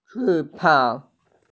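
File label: "chi4bang3", Mandarin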